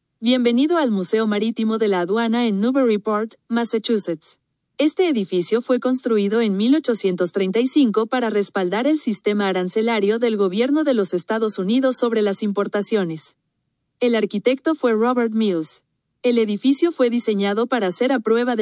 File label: spanish, audio tour